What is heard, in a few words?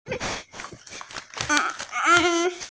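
"gong yuan" Chinese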